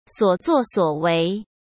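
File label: "所作所为", Mandarin Chinese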